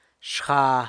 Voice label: "xga" wing, San Lucas Quiavini